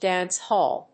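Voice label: "dance hall", English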